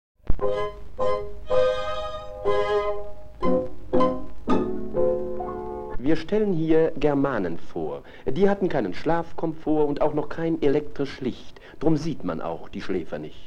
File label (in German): werbung zeichentrick mono